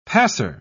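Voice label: "passer", British English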